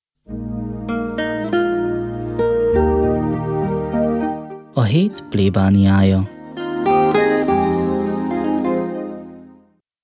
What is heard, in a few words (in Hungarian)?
bevezeto Jingle; A het plebaniaja